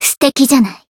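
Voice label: healed, Japanese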